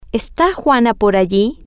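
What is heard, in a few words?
is over there, Spanish, female; is Juana over there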